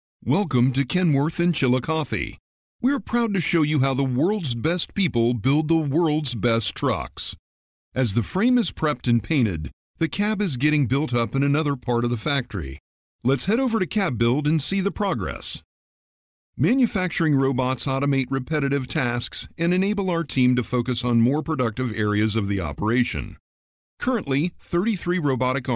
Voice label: Explainer